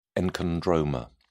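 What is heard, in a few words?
"enchondroma", British English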